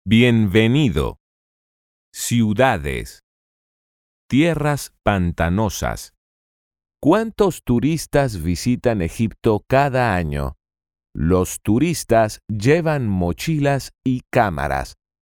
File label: eLearning Language learning